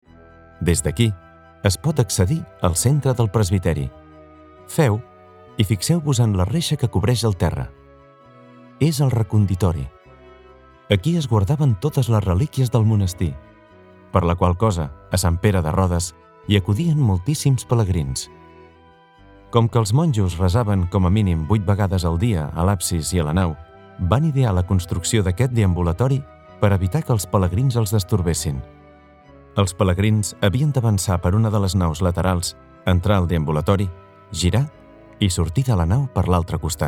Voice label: catalan audio guides

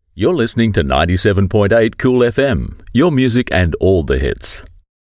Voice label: english australian promos

